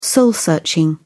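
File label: "soul searching", British English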